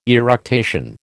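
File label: "eructation", American English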